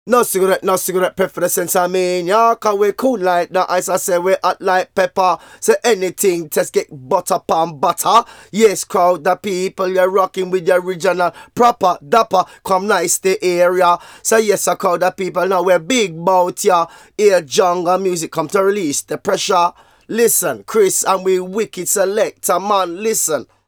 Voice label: Vocals; Jungle Frenzy 1